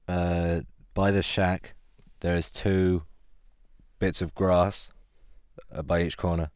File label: 14 year old boy